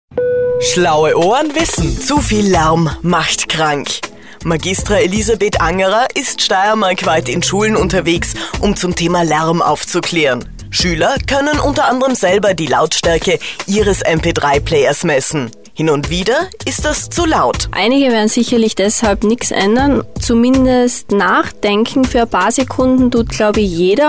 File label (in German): Interview